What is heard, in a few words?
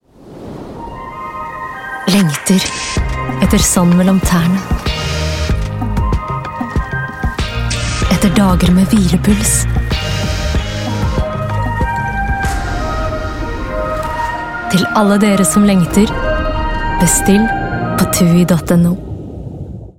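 Norwegian, female, advert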